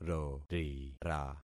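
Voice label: "rorira", concatenated syllables; Affixing experiment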